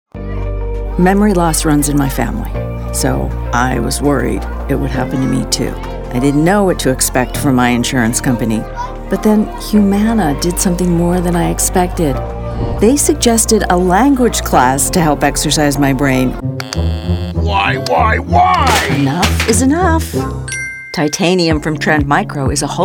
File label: Commercial